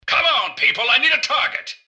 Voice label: generic robot lost idle; mrgutsy; npc